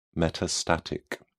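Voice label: "metastatic", English, British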